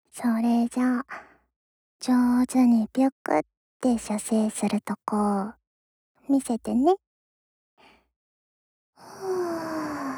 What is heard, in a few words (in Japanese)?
右からカウントダウン, SE控えめ